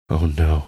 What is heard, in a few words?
quietly saying oh no